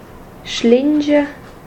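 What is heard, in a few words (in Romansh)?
"vallader Schlingia", Romansh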